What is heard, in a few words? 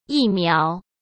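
"疫苗", Mandarin Chinese; chinese flashcards; hsk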